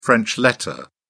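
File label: "french letter", British English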